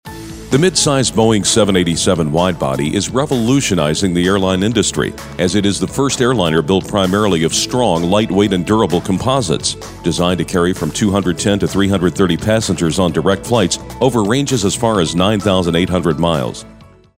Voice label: informative